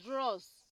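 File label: "dear", Nigerian Pidgin, female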